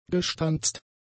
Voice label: "gestanzt", German; adjectives

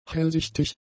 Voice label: "hellsichtig", German; adjectives

